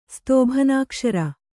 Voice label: "ಸ್ತೋಭನಾಕ್ಷರ", Kannada

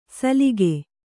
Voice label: "ಸಲಿಗೆ", Kannada

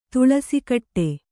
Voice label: "ತುಳಸಿಕಟ್ಟೆ", Kannada

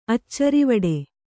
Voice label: "ಅಚ್ಚರಿವಡೆ", Kannada

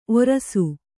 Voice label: "ಔರಸ", kannada

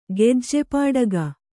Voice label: "ಗೆಜ್ಜೆಪಾಡಗ", Kannada

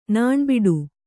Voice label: "ನಾಣ್ಬಟ್ಟೆ", kannada

